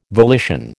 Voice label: "volition", American

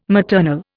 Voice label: "maternal", Indian

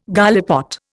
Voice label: "gallipot", Indian

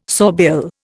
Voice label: "sawbill", Indian

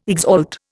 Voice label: "exalt", Indian

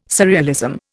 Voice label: "surrealism", Indian

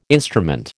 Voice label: "instrument", American

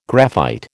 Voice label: "graphite", American

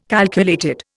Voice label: "calculated", Indian

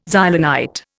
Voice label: "Xylonite", Indian

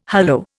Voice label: "hollo", Indian

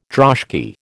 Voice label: "droshky", American

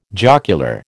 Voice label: "jocular", American

